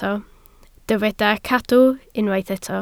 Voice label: "cadw" Welsh

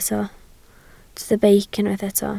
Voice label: "beic", Welsh

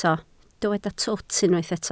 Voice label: "twt", Welsh

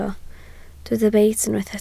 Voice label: "beit" Welsh

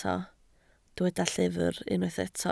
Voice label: "llyfr", Welsh

